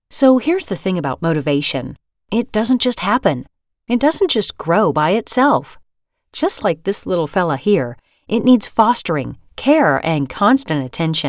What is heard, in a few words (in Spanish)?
narracion ingles USA